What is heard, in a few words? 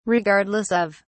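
"regardless of", British English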